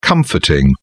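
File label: "comforting", British English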